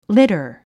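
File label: "litter", English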